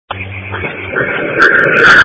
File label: EMS Dispatch